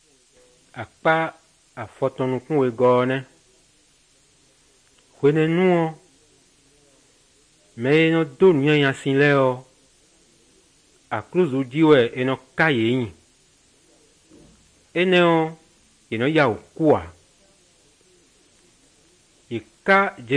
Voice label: good news, fon fongbe bible drama

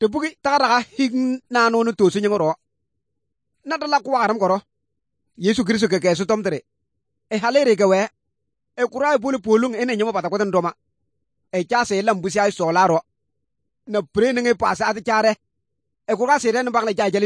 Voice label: good news, kabiye bible

